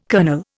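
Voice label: "kernel", Indian English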